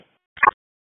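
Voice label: Police District Tactical, p25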